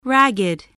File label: "ragged", english